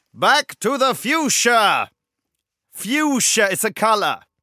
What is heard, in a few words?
narrator; Danger Mouse - Ultimate